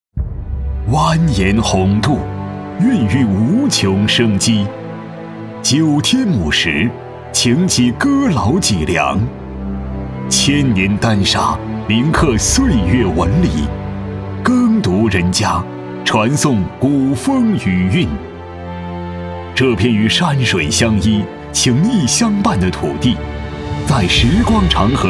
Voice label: 男,大气浑厚,扶贫攻坚; 务川

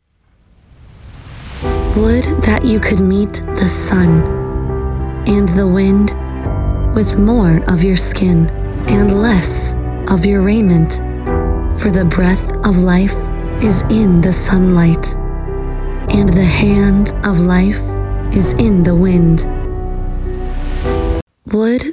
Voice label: 外籍英女 宣传片 低沉质感

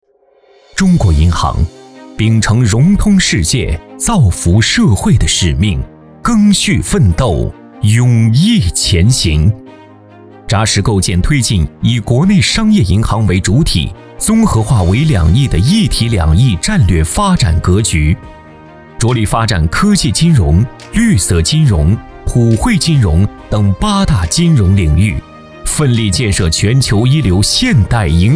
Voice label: 男 专题片 银行; 中国银行

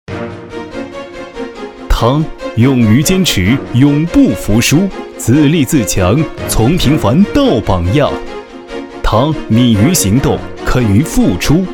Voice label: 男 专题 颁奖激情

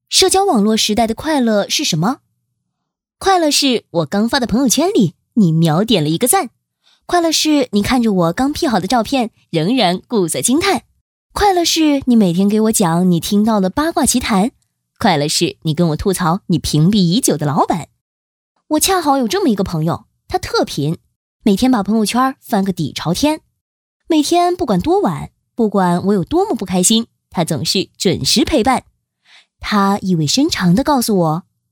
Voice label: 女声; 飞碟说

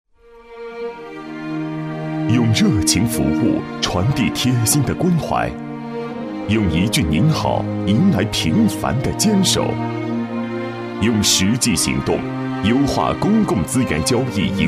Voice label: 男 人物颁奖 大气

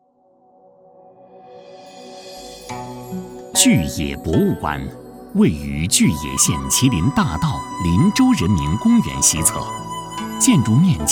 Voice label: 男 展馆介绍; 巨野博物馆

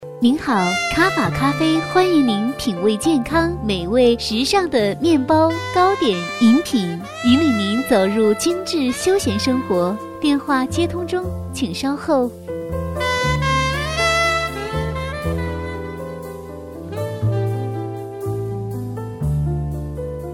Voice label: 彩铃 咖啡, 国语女声配音

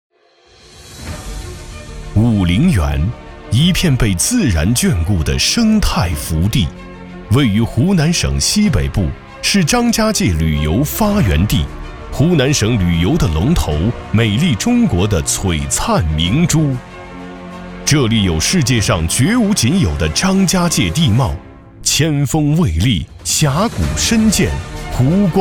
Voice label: 男 纪录片 大气自然; 栖居武陵源

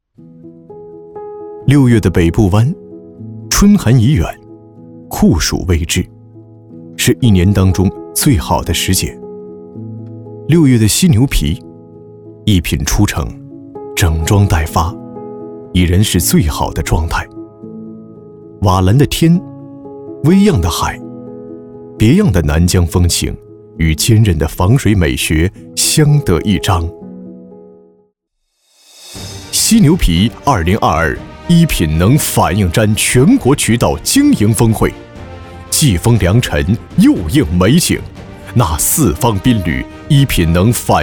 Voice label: 男 旅游宣传 六月的北部湾

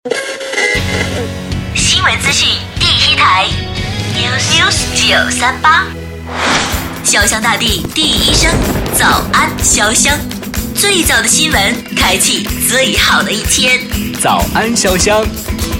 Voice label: 电台片头, 磁性女配; 早安潇湘

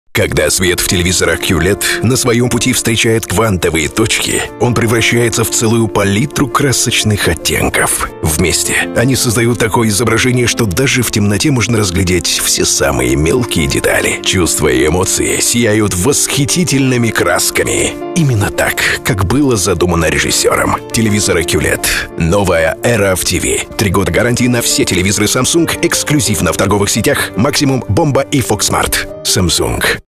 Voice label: 外籍俄语男-电视广告

